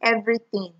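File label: "everyting", Nigerian Pidgin, female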